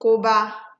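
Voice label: "koba", Nigerian Pidgin, female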